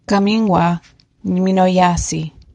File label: "not well", ojibwe; Kaawiin gwa nminoyaasii; (I'm not well/fine)